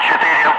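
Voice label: "5", spoken; Ka-50; Wingman; Digits; DCS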